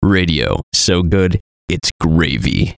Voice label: VOICE COMICAL; So Good Its Gravy; Radio Voice; Blastwave